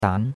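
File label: "dan2", Chinese; Cours-de-Chinois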